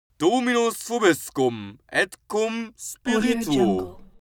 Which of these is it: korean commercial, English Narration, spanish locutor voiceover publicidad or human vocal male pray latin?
human vocal male pray latin